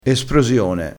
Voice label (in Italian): "esprosione", vocabolario dialettale